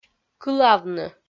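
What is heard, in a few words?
"кылавны", Komi-Zyrian, female; kpv audio dict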